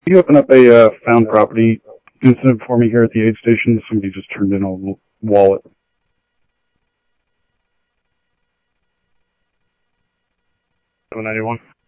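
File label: Lakes Sheriff Lake Patrol, scanner audio trunk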